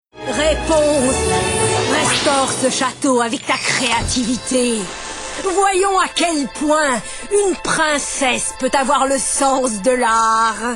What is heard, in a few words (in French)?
Mechante reine, voix parlees, feerique et legendaire